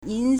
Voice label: "yin2", Chinese